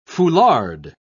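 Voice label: "foulard", English, British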